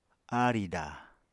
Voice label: "aarìda", apatani